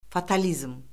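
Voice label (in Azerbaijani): "fatalizm", Azerbaijani; Orfoqrafiya Lüğəti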